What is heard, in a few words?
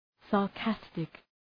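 "sarcastic" English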